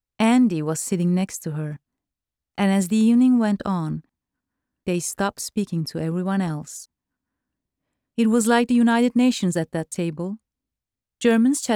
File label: English Narrative